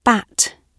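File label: "bat", British English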